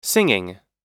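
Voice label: "singing", American English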